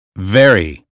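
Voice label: "very", American English; 英语音标发音（剑桥版）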